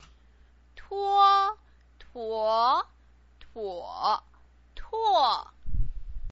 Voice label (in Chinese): "tuo", pinyin